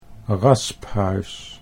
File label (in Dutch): "rasphuis", Dutch